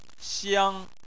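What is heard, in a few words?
"xiang", Chinese, first tone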